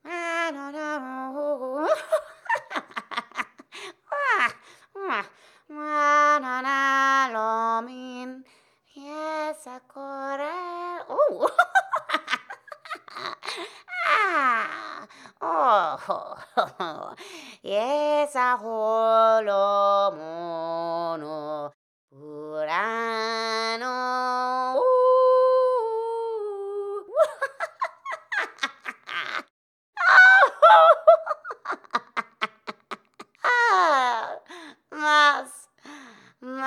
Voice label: mutter loop; Hollow Knight: Silksong